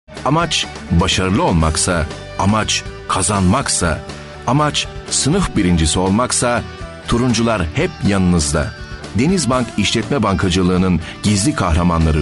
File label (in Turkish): enerjik tempolu, seslendirme